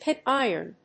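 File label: "pig iron", English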